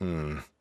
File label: Emote Negative